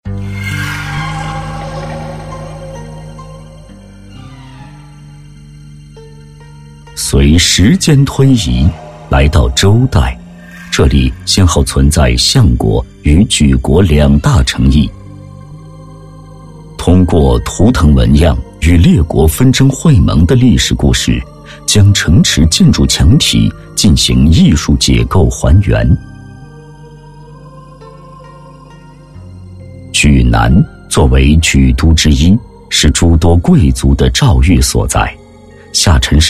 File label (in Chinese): 纪录 博物馆